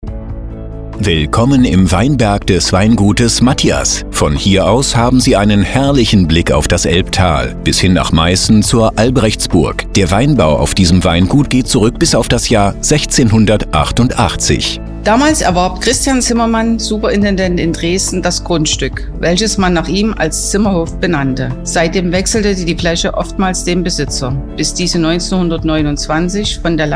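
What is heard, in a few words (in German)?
Hör-Station audioguide; Weingut Matyas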